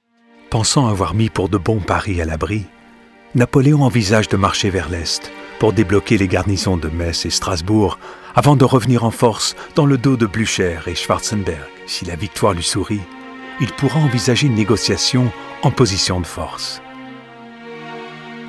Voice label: Docu VF voix off voice over; Napoléon

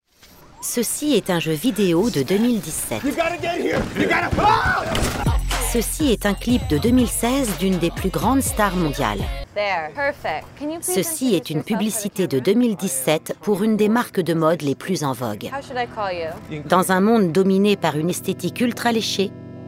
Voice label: Voix Jeu video

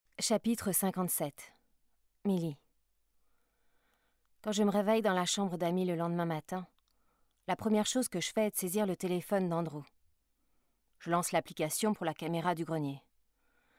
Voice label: Femme

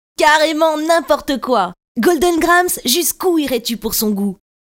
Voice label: Pub TV Jeune garçon; GOLDEN GRAHAMS

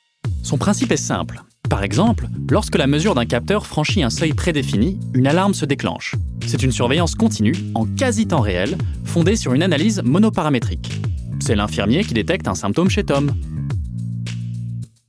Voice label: Institutionnel FR; EDF

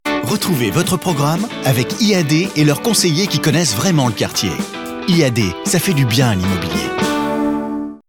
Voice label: Billbord TV Dynamique souriant affirme Voix; IAD

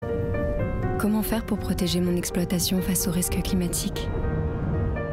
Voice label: film publicitaire; Abeille Assurances lance son second film publicitaire

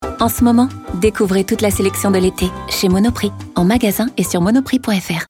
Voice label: PUB FR; Monoprix

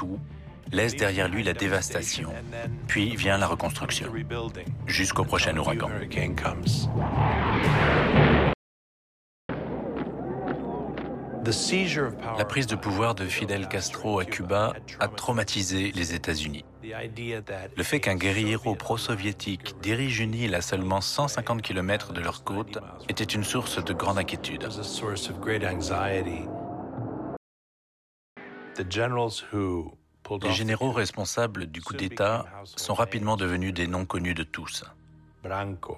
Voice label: Extraits voice over Amerique latine; Nilaya Productions

